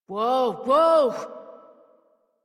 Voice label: Horse Stop Walk Adult; Warframe; Duviri